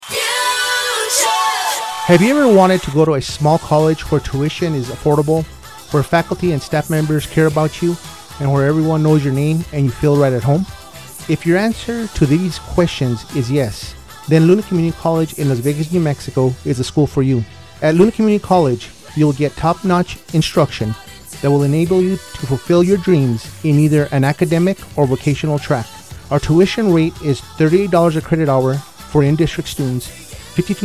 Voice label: commercial; Luna Community College